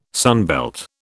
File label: "sunbelt", British